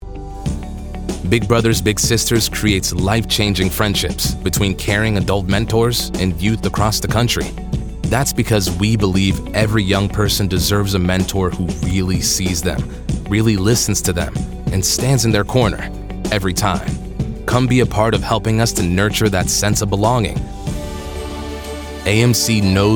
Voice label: Corporate Narration